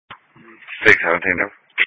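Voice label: Police Patrol (Dispatch)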